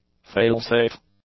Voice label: "failsafe", english; adjectives